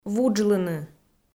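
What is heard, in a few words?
"вуджлыны", Komi, female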